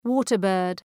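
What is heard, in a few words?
"water bird", English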